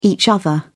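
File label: "each other", British English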